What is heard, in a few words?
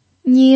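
"niu" Mandarin Chinese, first tone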